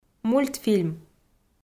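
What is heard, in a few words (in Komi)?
"мультфильм", Komi, female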